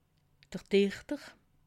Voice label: "dichter", alsace

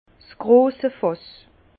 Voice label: "grossefass", Bas Rhin; foudre; vin

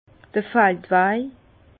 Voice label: "faldwaj", Bas Rhin; vin; sentier

